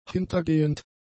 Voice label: "hintergehend", German; adjectives